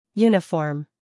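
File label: "Uniform", American English; sounds-of-american-english; everyone-can-use-english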